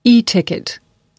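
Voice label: "e-ticket", US English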